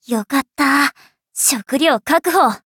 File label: levelup ja; Cookie Run